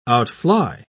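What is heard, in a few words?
"outfly", British English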